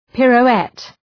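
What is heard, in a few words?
"pirouette", English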